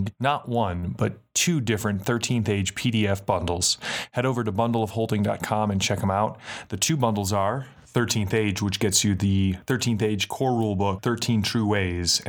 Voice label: announcement